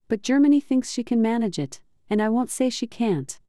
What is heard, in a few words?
Germany synthetic